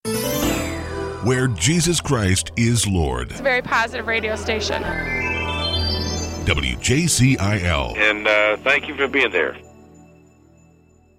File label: Station ID Radio Promos